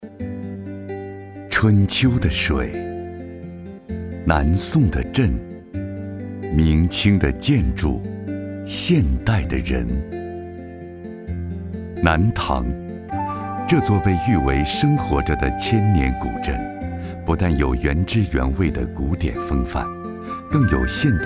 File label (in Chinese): 男 景区; 西塘